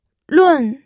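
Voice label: "lun", fourth tone, pinyin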